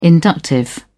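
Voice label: "inductive", British English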